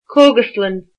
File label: pharmacy, irish